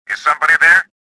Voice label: suspicious; XBOX